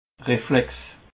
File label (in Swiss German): "reflex", alsace